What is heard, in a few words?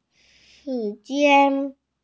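"shi2jian1", Mandarin Chinese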